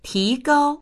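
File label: "ti2gao1", Mandarin Chinese; 提高; 高める、向上させる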